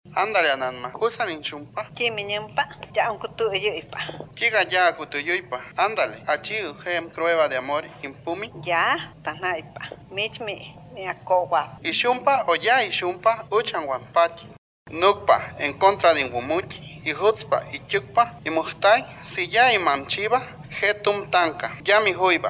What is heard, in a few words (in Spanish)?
Lengua Popoluca; FI San Andres Violencia en el Noviazgo; Violencia contra las mujeres